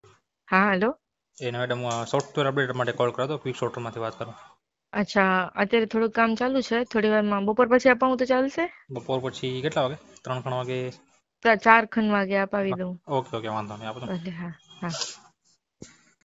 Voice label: Call recording; Lions Hospital